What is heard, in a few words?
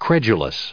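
"credulous" English, us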